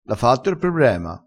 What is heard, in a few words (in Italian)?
"diavolo", vocabolario dialettale